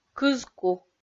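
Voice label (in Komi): "кызку", Komi, female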